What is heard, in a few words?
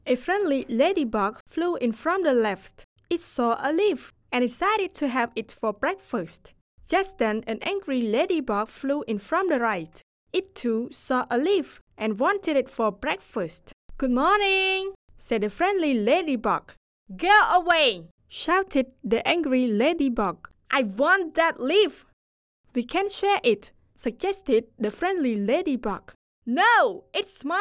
Female English; Cambodia